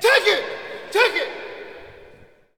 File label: Hockey Male "Take It"; Hockey Vocal Sounds